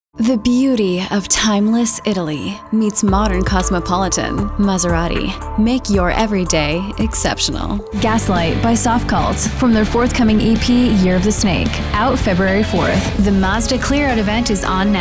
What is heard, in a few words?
COMMERCIAL